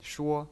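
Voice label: "shuo", Mandarin Chinese pinyin, first tone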